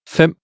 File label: Danish